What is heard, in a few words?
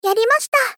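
Polite, Victory, "Yari masita", Japanese female; Rei Yumesaki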